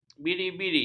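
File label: "biri-biri", Malay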